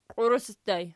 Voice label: "qiuresi", Archi